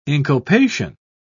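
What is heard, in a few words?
"inculpation", English, British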